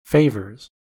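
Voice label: "favours", American English; pronunciations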